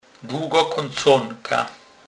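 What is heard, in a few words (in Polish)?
"dlugokaconka", Kurpie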